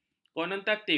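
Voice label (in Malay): "konotatif", Malay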